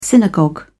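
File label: "synagogue", English, British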